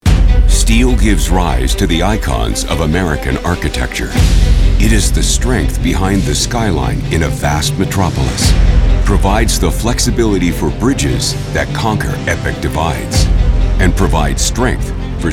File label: Broadcast Narration Voice Over